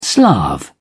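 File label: "slav", British English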